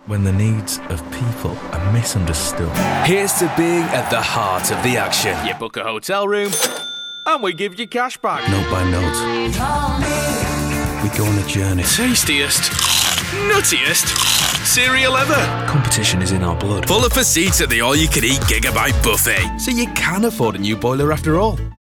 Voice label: Commercial; Showreel

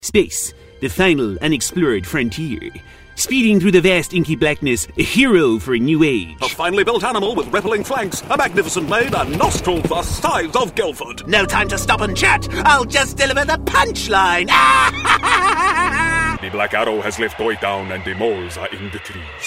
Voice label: Character

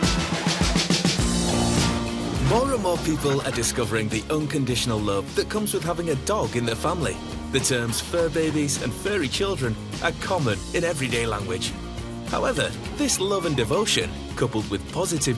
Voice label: Narration; Showreel

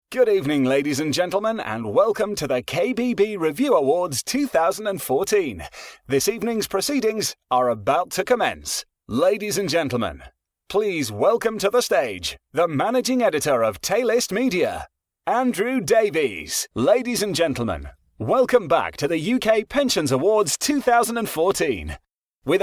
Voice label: Voice Of God

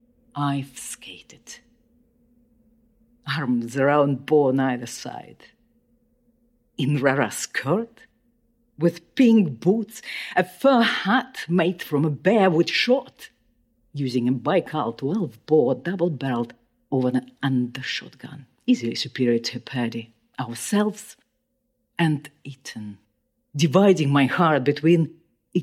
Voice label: ENG

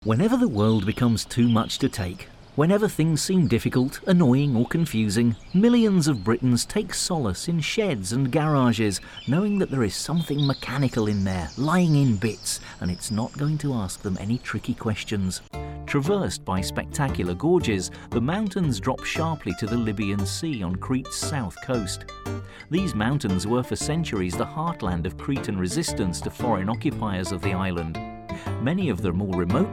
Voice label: Narration

